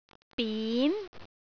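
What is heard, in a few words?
"bin2", Cantonese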